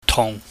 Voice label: "tung", pingyam

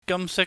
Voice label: "gamsik", Cantonese

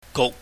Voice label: "guk", chinese

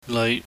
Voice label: "neui", pingyam

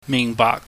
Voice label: "mihngbaahk", Cantonese

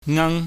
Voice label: "ngang", Chinese

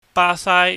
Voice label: "basai", Cantonese

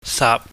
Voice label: "saap", Chinese

